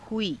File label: "huii", Hindi